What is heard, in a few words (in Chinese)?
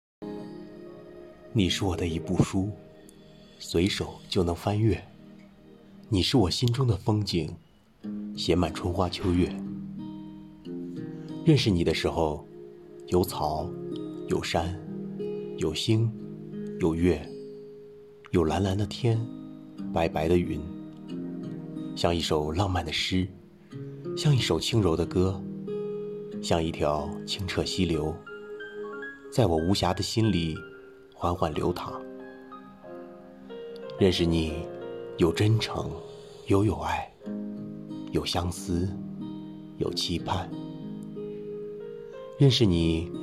与你相识 加背景音乐